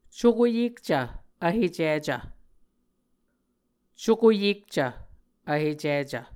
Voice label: "cuko yekcv vhecicv", Muscogee; Mvskoke Words with Voicing Consonants; Martin, Jack B., and Margaret McKane Mauldin. 2023. A Dictionary of Creek/Muskogee; SIL International

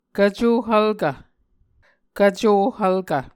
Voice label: "kvco hvlkv", Muscogee

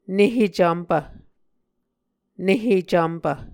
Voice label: "nehe cvmpv", Muscogee

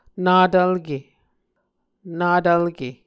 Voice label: "natvlke", Muscogee

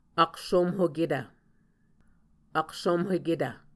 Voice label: "aksomhoketv", muscogee; Mvskoke Words with Voicing Consonants; Martin, Jack B., and Margaret McKane Mauldin. 2023. A Dictionary of Creek/Muskogee; SIL International

